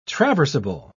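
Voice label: "traversable", British English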